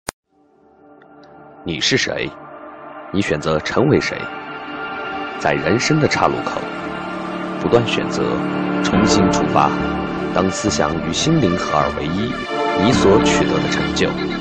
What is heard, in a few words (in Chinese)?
电台广告; 玛莎拉蒂